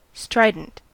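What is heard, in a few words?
"strident", US English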